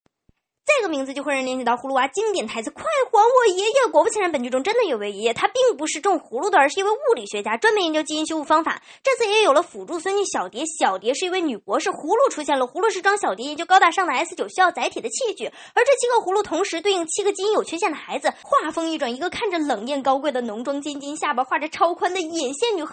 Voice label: 女声 快节奏 另类 电影解说

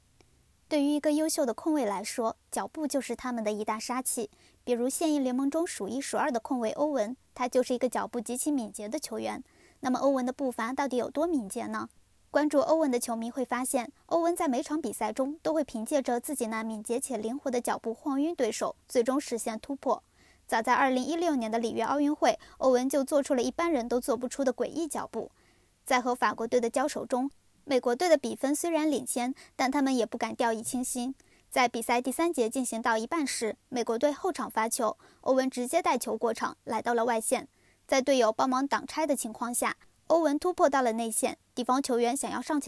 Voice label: 短视频解说

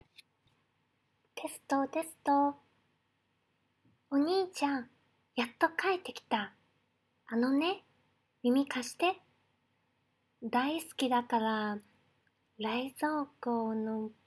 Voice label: 动漫音

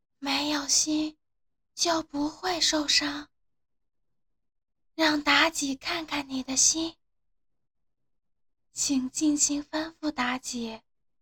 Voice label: 游戏 配音

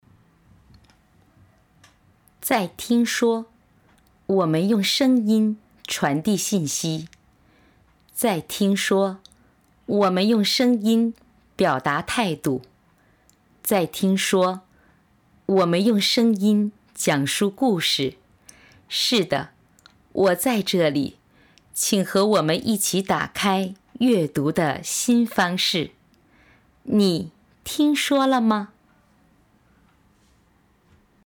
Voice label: 讲述类

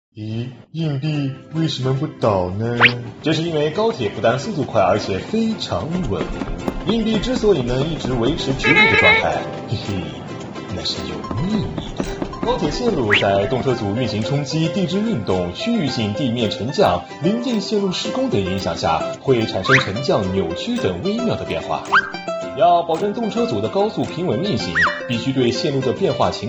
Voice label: 铁路科普

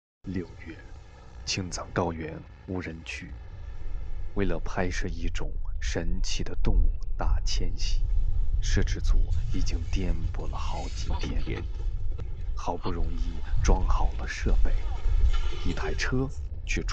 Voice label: 纪录片; 闯入无人区

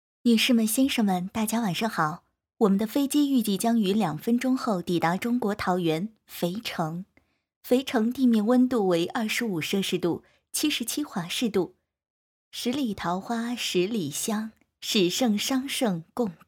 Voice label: 机场播报; 肥城机场播报

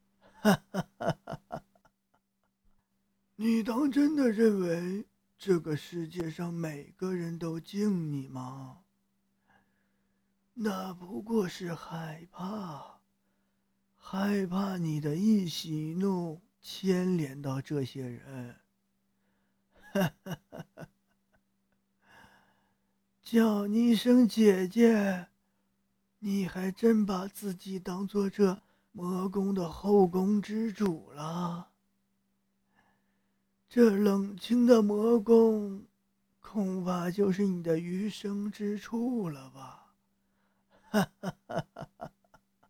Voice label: 老年音

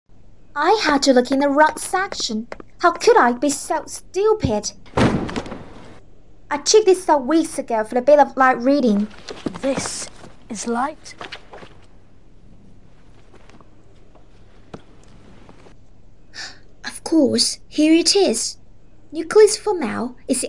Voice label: 样音英语; 哈利波特

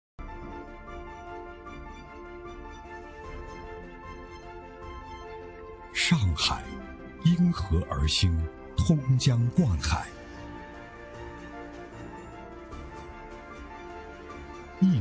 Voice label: 大气浑厚

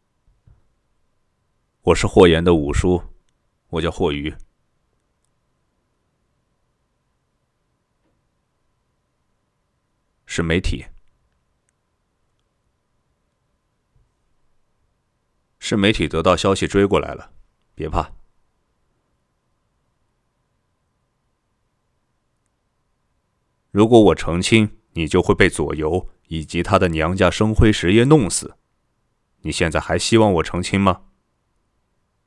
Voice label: 男播; 白月光她一夜暴富

